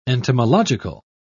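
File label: "entomological", English, British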